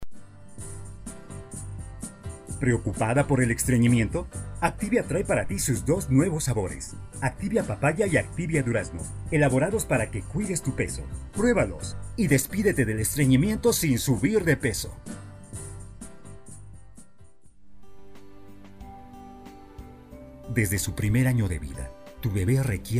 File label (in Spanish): locutor